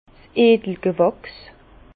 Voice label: "edligwachs", Bas Rhin; vin; grands crus

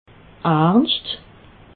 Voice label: "ernscht", alsace

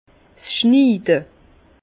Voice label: "schniide", Bas Rhin; la taille; vin

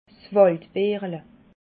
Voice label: "waldbeerle", alsace

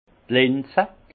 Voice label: "linze", Alsace; lentilles; vin

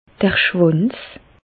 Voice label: "schwanz", Bas Rhin; medecine; penis verge

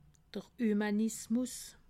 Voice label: "humanismus", alsace

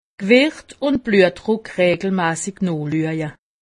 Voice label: "gewicht", alsace; medecine

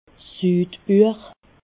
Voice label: "sudbuech", alsace

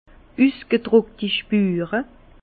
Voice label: "spure", Bas Rhin; jambes prononcées; vin

